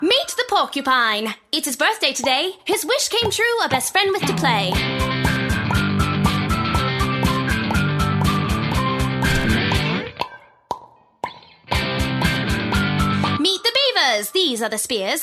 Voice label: Animation

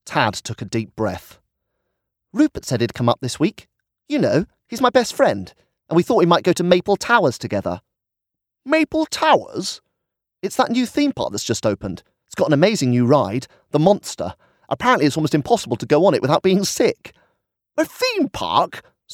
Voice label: Audiobook; The Switch

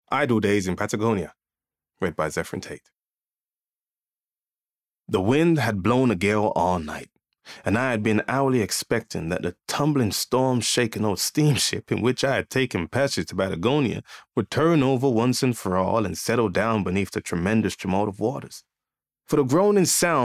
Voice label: Bookread; Idle Days in Patagonia (US accent)

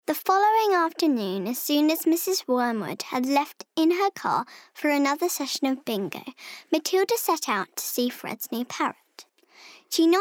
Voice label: Bookread; Matilda

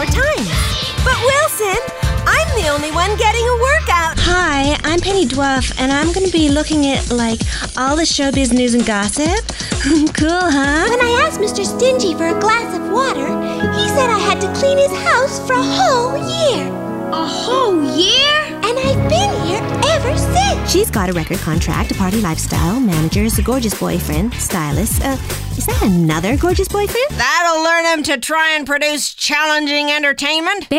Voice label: Animation; Animation Showreel

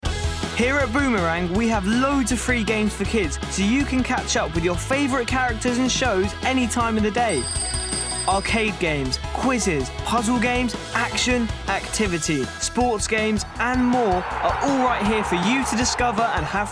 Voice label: Promo; Boomerang

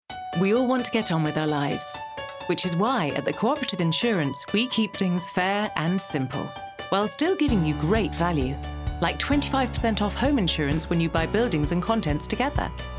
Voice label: Commercials Montage

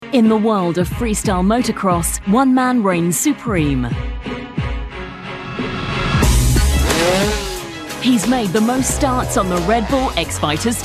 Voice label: Documentary

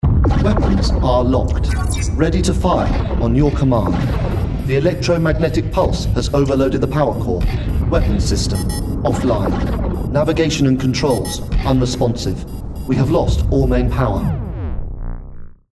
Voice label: Gaming Android

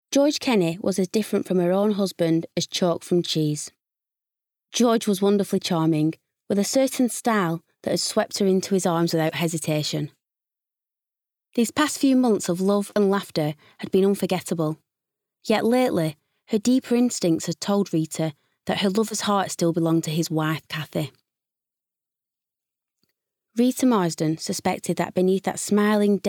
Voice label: Bookread

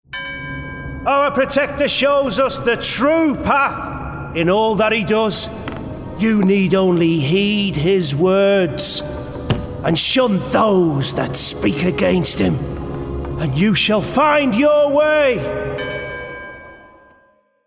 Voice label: Gaming Priest Yorkshire; Gaming Character

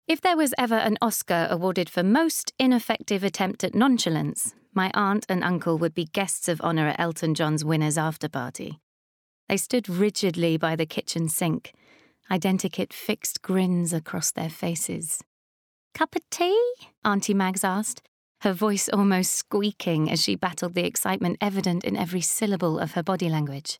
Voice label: Bookread; Started With A Kiss

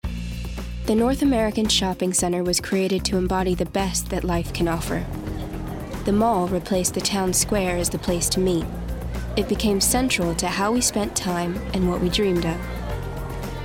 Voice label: Doc US; Malls

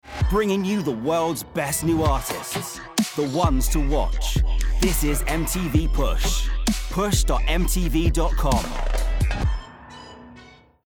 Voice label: Promo; MTV

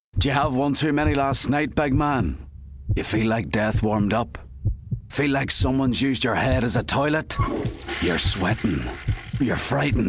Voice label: Lager Commercial; Harp

